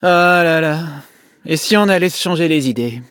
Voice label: defeat, fr; Cookie Run

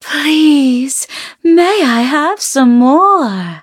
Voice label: levelup, en; cookie run

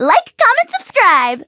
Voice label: eng menu idle; Melon Soda

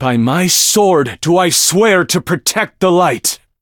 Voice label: touch, en; Cookie Run

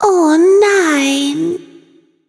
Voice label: defeat, de; cookie run

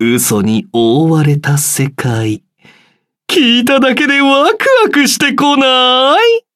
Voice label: levelup ja

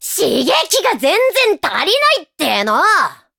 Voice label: upgrade, ja; cookierunwiki

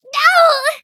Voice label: hit, en; Cookie Run

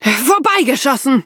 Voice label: hit, de; cookie run

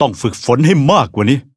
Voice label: levelup, th

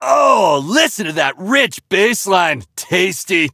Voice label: levelup en

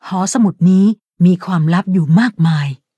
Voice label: level up, th; cookierunwiki

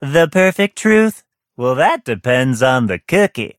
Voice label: levelup en; cookie run

